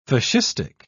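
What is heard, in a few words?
"fascistic", British English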